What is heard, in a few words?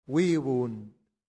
"vivunt", latin; santillana